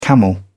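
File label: "camel", British English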